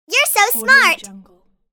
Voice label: cartoon saying youre so smart; applehillstudios